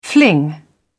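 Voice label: "fling", British English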